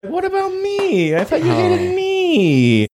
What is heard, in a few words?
saying what about me drawn out whiny